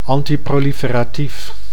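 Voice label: "antiproliferatief", Dutch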